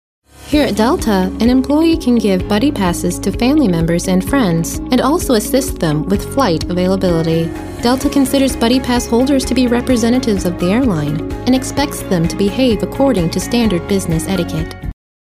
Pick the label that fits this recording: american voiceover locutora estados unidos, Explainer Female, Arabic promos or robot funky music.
Explainer Female